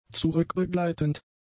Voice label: "zurückbegleitend", German; adjectives

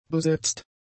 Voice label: "besetzt", german; adjectives

